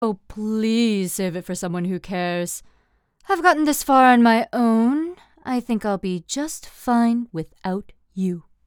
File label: Character Deep Confident Middle Age; American English voice-over artist